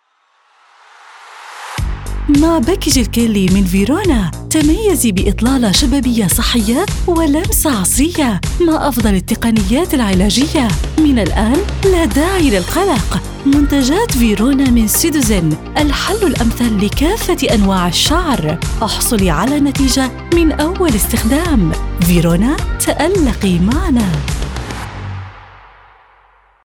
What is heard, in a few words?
arabic commercial